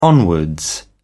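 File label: "onwards", British English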